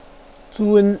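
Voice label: "zun1", Chinese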